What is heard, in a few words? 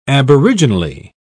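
"aboriginally", British English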